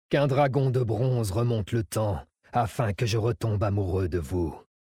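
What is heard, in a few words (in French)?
French Male Flirt; Dracthyr; DragonDeBronze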